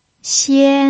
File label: "xian1", pinyin